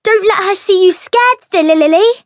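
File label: Voice encounter fear; thillelille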